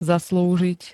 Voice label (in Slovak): "zaslúžiť", Slovenské